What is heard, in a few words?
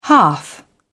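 "hearth", British English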